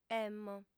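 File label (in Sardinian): "emmo", female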